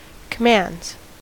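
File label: "commands", US English; pronunciations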